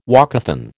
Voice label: "walkathon", American